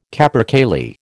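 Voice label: "capercaillie", American English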